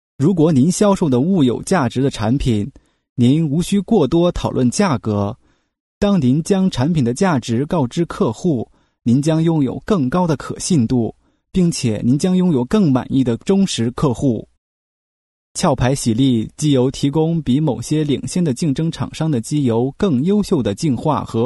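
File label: Chinese, male, various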